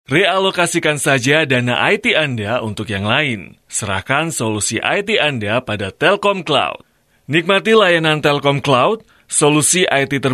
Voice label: Indonesian M Explainer; voice over

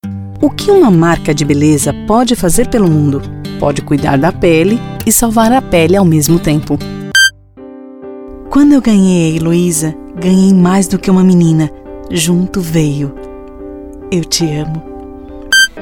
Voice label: Portuguese BR F Showreel, brazilian portuguese; voice over

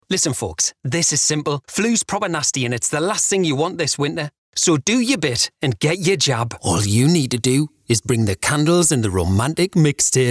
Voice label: English M Commercial, accents of england; voice over

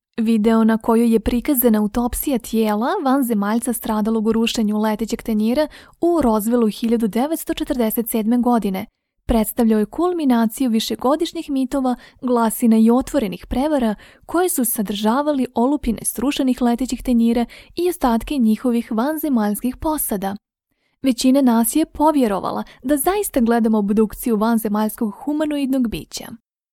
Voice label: Bosnian F Corporate; voice over

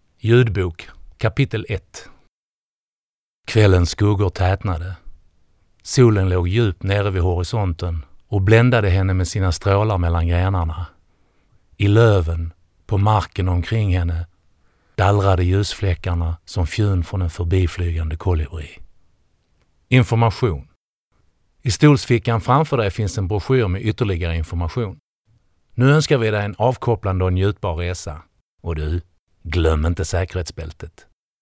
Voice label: Swedish M Corporate

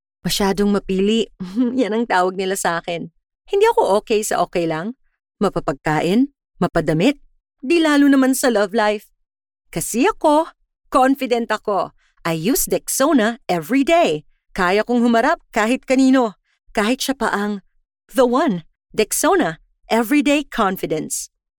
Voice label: Tagalog F Commercial; filipino

